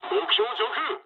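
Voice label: near waypoint mood low, speech japanese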